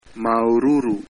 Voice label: thanks, tahitian